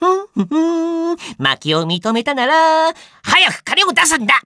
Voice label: victory jp